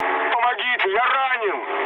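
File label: Russian damaged pilot mood low, speech; War Thunder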